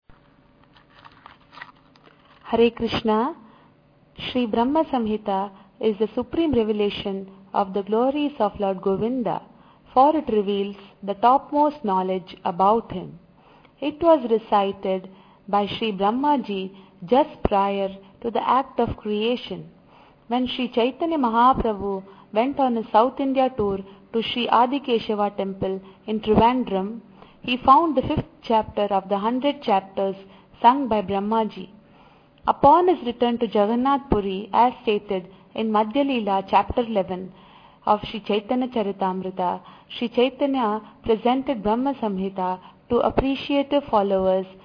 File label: Recitation, 5 year old; Sloka recitation; Brahma Samhita; Learning Verses